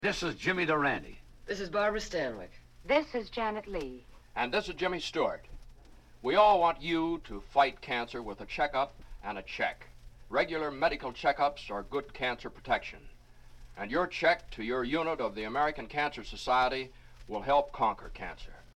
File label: Cancer Society Station Break Announcement